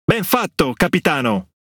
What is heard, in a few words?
Speech Quick Commands Thank You Ship, IT; World Of Warships